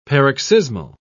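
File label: "paroxysmal", British English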